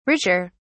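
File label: "richer", British English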